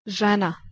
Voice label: "Zena", Bosnian

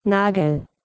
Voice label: "nagl", Proto West Germanic

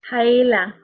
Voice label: "haila", Proto-Germanic

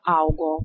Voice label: "augo", Proto-Germanic

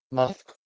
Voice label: "mathik", Proto-Germanic

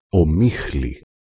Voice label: "omixli", Modern Greek

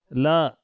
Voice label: "la", Pashto

